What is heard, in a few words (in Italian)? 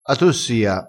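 "atossia", vocabolario dialettale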